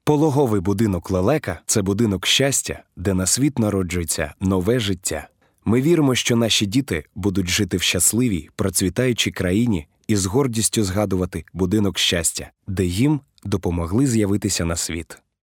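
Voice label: ukrainian voiceover locutor ucraniano, Ukrainian male voice over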